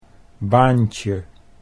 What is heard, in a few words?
"baantje", Dutch